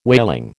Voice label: "whaling", American